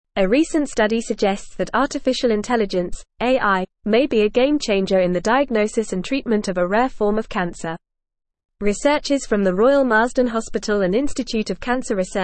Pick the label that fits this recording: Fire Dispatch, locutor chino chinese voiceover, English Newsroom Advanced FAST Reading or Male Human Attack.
English Newsroom Advanced FAST Reading